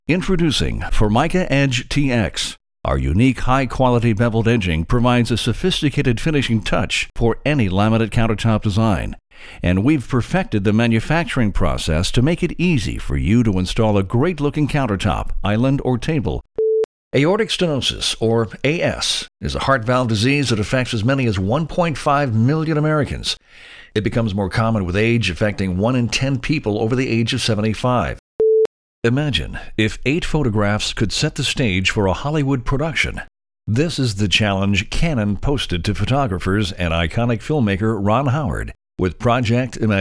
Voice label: Narration e-Learning